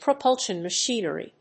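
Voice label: "PROPULSION MACHINERY", English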